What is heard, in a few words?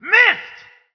german; Spec Ops 2